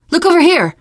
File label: look here, teen girl voice; source engine; left 4 dead 2; survivor